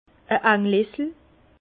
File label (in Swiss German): "anglessel", Bas Rhin; vin